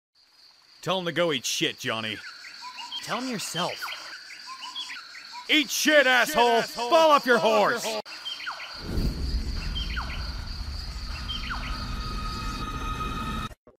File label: shouting; gyro